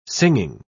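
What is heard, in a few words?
"singing", British English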